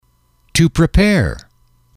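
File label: "to prepare", English, male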